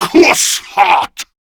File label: Player Spotted, Male; Warframe; Grineer Male B; Battle Barks